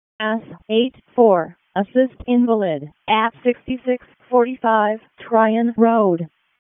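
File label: Wake County EMS ALERT, p25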